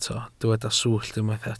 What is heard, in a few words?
"swllt", welsh